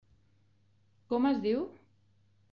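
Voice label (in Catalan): com es diu, Catalan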